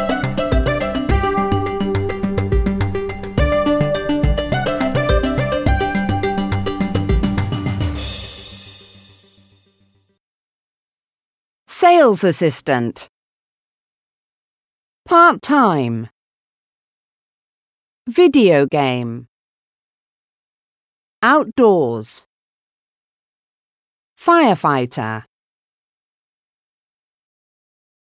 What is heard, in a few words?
intonation